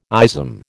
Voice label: "ism", American